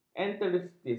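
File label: "enteritis", Malay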